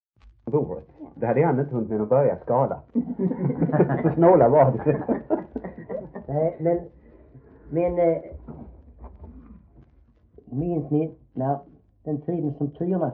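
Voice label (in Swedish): Äspö Skåne, Svenska sagor dialekter; Folkminnen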